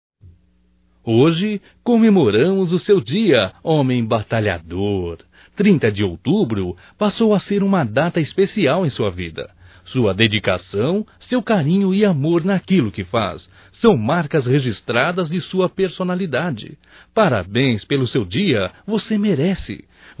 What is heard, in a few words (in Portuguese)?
Dia Balconista Masculino Masculino, Telemensagens, Só Voz; DATAS COMEMORATIVAS